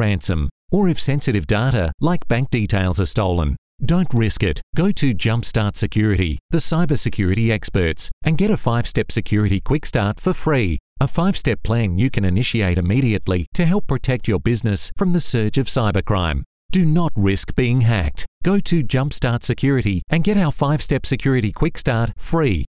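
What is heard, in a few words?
Australian English, male, commercial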